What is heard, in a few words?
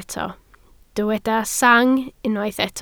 "sang", Welsh